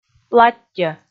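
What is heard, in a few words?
"платтьӧ", Komi, female; audio dict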